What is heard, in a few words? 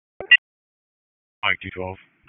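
Sheriff's Office Dispatch; wake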